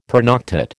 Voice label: "pernoctate", American